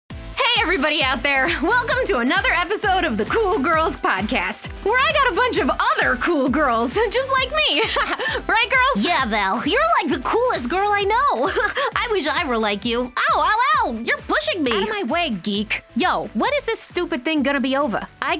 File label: character